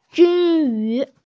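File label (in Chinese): "jin1yu2", Mandarin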